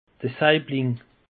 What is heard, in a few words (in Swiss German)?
"saibling", alsace; peche